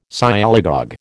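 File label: "Sialogogue", American English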